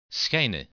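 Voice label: "schijnen", Dutch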